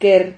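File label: "ker", syllable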